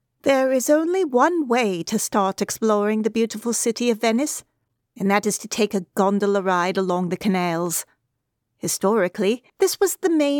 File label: British; British Reel